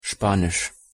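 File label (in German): "spanisch", German; pronunciation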